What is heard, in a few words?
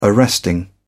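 "arresting", British English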